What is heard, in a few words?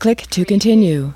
US female click to continue; lynne publishing